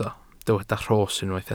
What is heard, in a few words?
"rhos", welsh